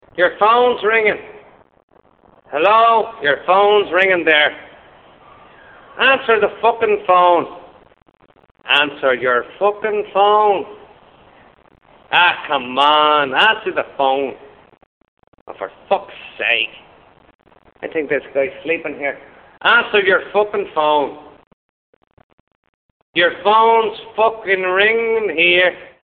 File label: rude ringtone answer the phone